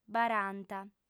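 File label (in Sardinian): "baranta", female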